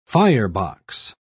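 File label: "firebox", British English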